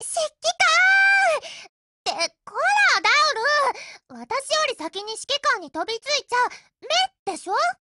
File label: HELLO JP; GF Alarm; M500Mod